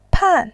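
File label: "pan", Mandarin, tone 4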